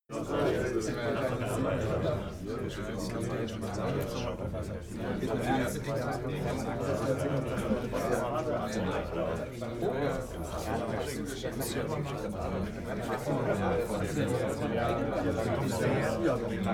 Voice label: talk german neutral long